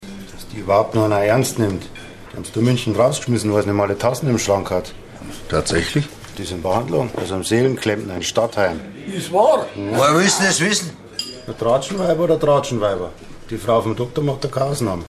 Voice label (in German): Dialekt Bayerisch